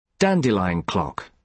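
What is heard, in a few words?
"dandelion clock", British English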